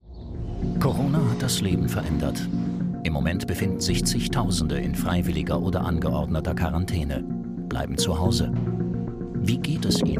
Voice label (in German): Doku; 37Grad